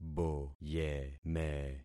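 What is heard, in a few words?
"boyeme", concatenated syllables; Affixing experiment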